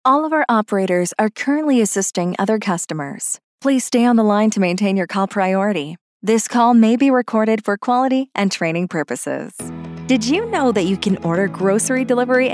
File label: IVR Telephony